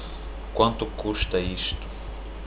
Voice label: cost, portuguese

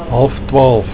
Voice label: half twaalf, Afrikaans; 11:30, Half past eleven

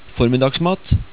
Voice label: "formidda", Norwegian

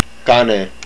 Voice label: "Husband", hawaiian

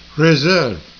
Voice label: "reservation", Farsi

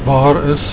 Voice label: waar is, Afrikaans

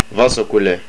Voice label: Left, estonian

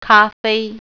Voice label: "coffee", Mandarin

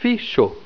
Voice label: "fiso", esperanto

